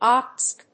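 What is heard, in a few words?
"OQPSK", English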